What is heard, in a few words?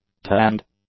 "tanned", English; adjectives